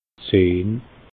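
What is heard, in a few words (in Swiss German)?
"zehn", Alsatian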